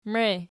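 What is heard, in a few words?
"mei" nominative, Archi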